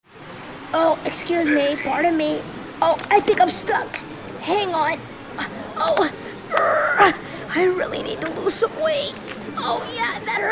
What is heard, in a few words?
Character Demo